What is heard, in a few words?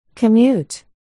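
"commute", spoken word, articulation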